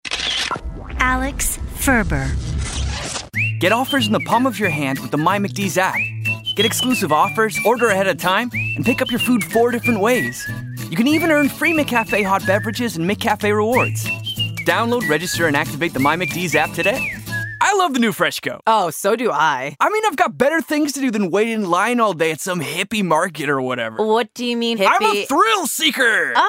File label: Voice, Voice Overs; Actor- Stage, Screen, Voice Overs